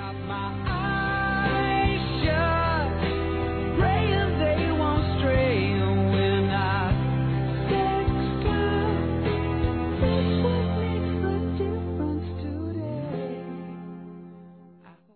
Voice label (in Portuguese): Saudades Amiga Feminino; Telemensagens